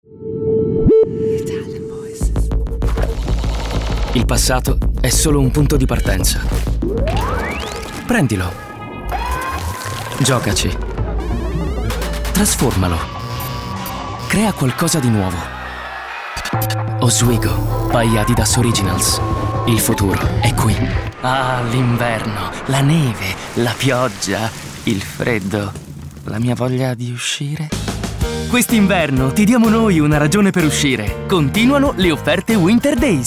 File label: ITALIAN VOICES Commercials, male voices